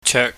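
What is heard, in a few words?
"cheuk", Cantonese, mid tone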